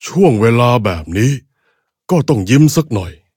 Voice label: level up, th; cookie run